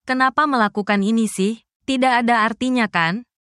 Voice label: indonesian phrases

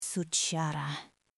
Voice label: Russian, russian phrases insults; ロシア語のネガティブなフレーズ

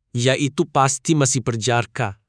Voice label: indonesia, negative phrases

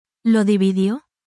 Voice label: spanish, spanish phrases

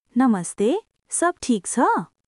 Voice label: Nepali, nepali greeting phrases

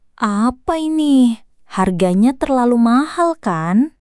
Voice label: indonesia, indonesian negative phrases

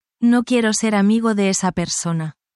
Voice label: spanish, spanish phrases negative language

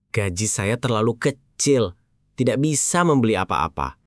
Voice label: indonesia, indonesian negative phrases

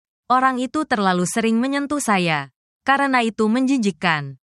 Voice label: indonesian phrases

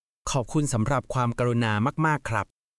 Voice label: Thai, basic Thai phrases

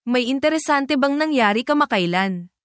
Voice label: tagalog filipino phrases, at work

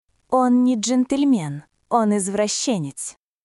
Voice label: Russian, russian phrases insults; ロシア語のネガティブなフレーズ

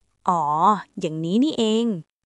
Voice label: Thai, basic phrases; タイ旅行や学習に役立つ！カタカナ発音で始めるタイ語基本100フレーズ集

